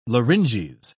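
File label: "larynges", British English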